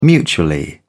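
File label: "mutually", British English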